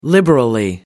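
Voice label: "liberally", British English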